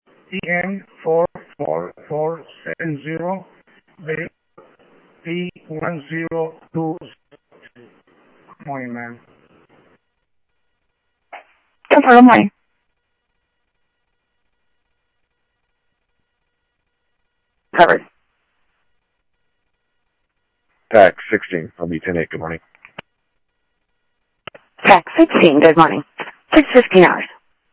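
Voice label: Sheriff East County, scanner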